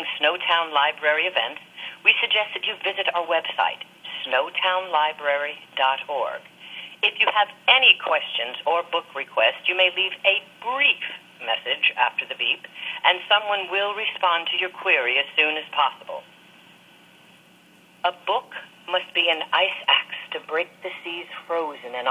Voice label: Voicemail